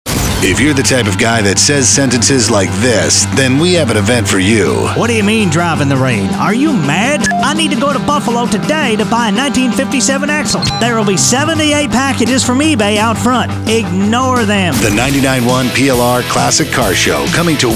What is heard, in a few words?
Promo; Car Show